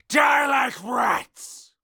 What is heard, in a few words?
Melee Miniboss Mid Combat Line; NPC; Heist Military Mid Combat